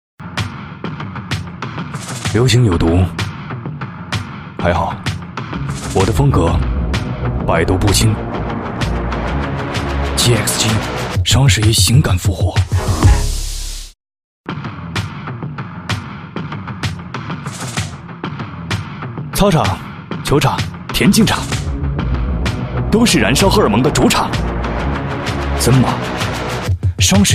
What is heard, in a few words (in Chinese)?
男 双十一个性（高端品质感个性）